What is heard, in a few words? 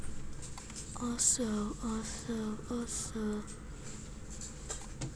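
"also", spoken word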